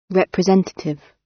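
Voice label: "representative", British; Crime and punishment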